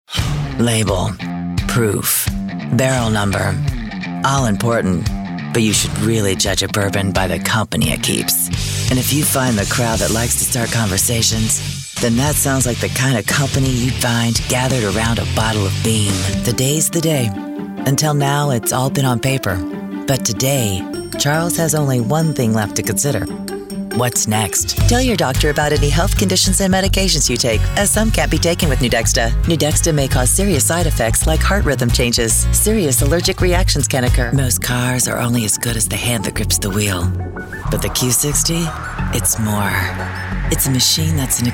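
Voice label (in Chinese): 外籍女英 外语 美式英语 Commercial; CommericalDemo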